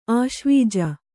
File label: "ಆಶ್ವೀಜ", Kannada